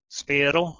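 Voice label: "speh1ro", Proto-Indo-European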